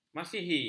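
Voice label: "masihi", Malay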